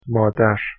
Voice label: "modar", Persian